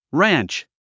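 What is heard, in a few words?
"ranch", English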